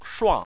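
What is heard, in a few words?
"shuang", Chinese, fourth tone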